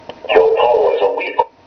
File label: voice changer powers; ROTS